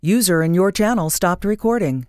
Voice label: neutral recording stopped current channel; TeamSpeak 3